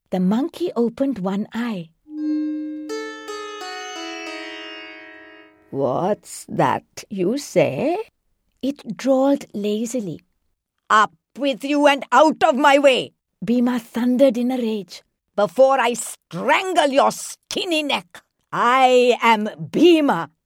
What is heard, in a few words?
Dramatic Voice Overs